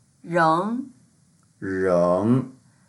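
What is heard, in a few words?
"reng2", Mandarin Chinese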